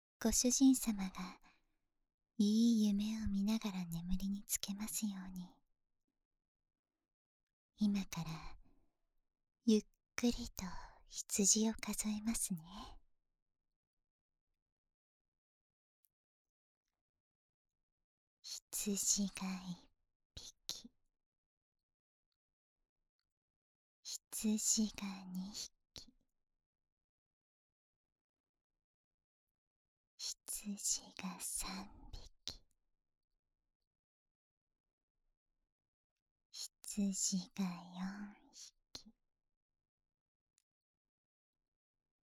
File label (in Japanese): 羊数えで眠りにどうぞ, ASMR